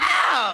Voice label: ow female; ooh ahh; ow ouch